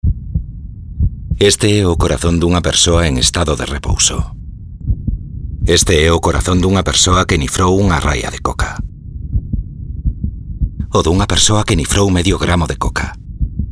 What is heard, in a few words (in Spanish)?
Latidos Gallego; Campañas Fad